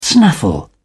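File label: "snaffle", British English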